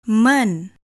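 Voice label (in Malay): "men", Malay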